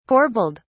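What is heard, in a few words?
"corbelled", British English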